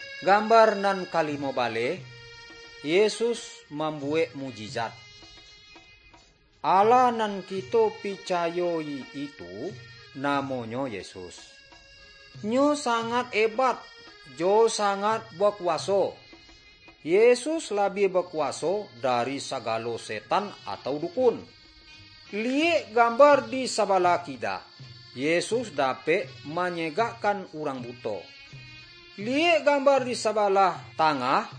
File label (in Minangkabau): good news minangkabau